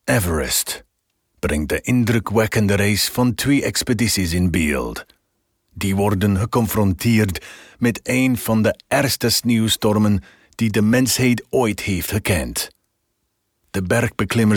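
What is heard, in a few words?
trailer Dutch; Everest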